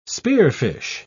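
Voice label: "spearfish", British English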